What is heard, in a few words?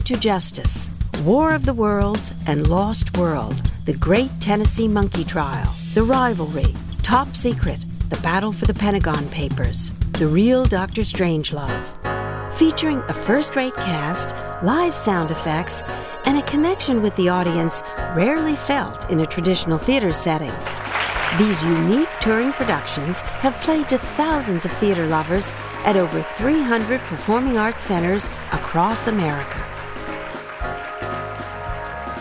General Radio Spot